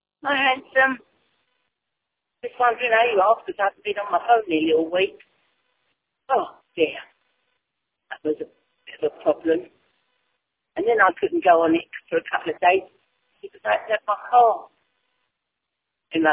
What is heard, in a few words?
voicemail